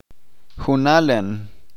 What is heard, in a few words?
each one, chalchiteko